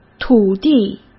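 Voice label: "土地" Mandarin Chinese; hsk; chinese-flashcards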